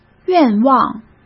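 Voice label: "愿望", Mandarin Chinese; chinese-flashcards; hsk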